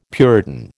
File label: "puritan", American